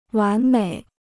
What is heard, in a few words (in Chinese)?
"完美", Chinese